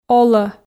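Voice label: "олӧ", Komi, female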